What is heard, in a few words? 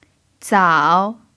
"zao3", Chinese pinyin